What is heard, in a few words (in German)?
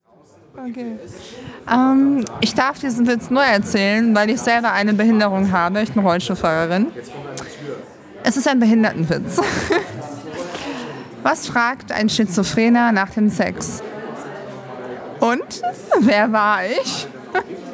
stimme w